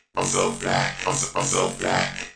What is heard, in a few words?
im so fat robot